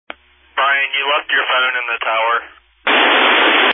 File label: Family Radio Service (FRS)